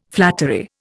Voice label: "flattery", Indian